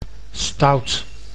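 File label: "stout", Dutch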